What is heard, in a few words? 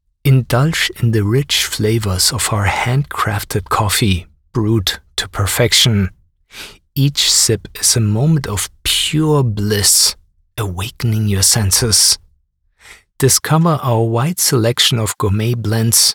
English with German Accent Coffee